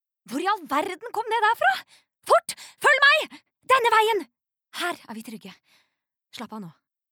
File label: no dub character, norwegian, female